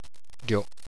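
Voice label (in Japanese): "ryo", Japanese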